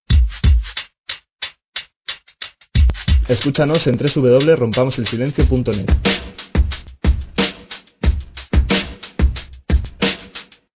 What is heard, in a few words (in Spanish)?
Escuchanos en www rompamoselsilencio net hombre